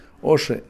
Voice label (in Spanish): tres, Kakchikel; Números